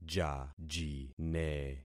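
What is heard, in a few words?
"jajine", concatenated syllables; Affixing experiment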